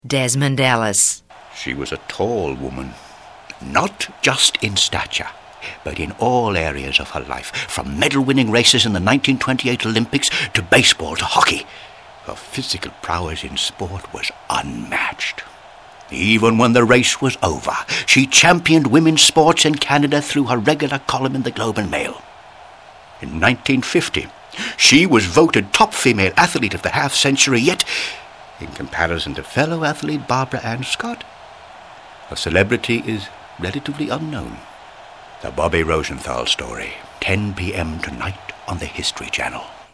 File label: commercial male